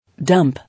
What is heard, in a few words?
"Dump", English